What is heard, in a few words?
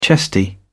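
"chesty", British English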